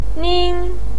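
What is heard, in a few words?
"nin", Mandarin Chinese first tone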